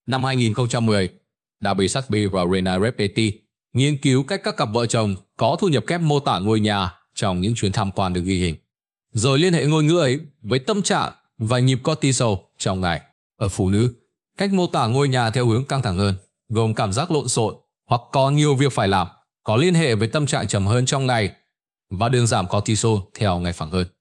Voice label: narration; Vi Sao Mot Can Phong Lon Xon Co The Lam Ban Met Moi; StickerMan